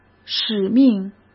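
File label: "使命", Mandarin Chinese; chinese flashcards; hsk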